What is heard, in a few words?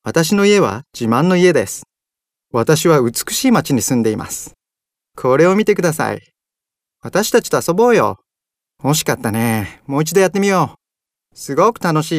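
Japanese male children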